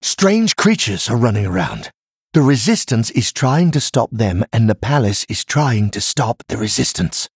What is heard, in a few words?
Male Night Elf; Story Dathril; Story Faelin Mission11 Scene